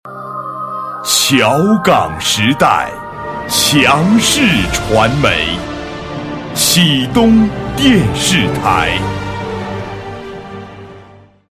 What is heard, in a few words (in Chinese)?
男 片头, 国语男声配音; 启东电视台